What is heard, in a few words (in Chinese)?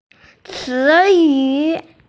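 "ci2yu3", Mandarin Chinese, female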